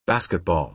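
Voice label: "basketball", American English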